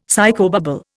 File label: "psychobabble", Indian; Dictionary